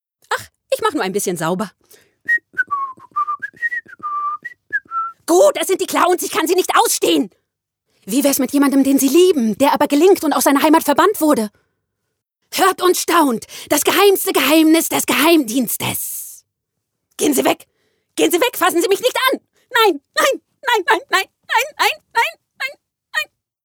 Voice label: Animation